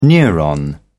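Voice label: "neuron", British English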